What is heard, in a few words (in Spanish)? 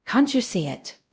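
Voice Acting, es, female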